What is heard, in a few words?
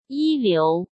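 "一流", Mandarin Chinese; chinese-flashcards; hsk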